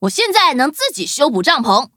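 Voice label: level up, Traditional Chinese; cookie run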